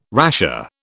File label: "rasher", British